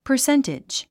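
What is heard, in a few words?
"percentage", English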